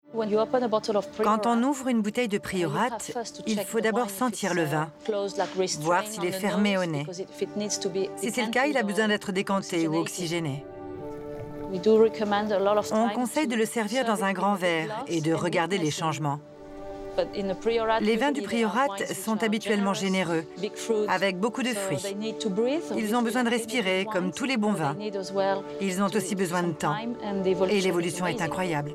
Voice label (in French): voix off; Le vin du Priorat